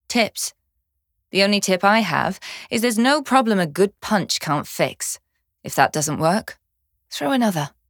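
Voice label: voice english; Lynn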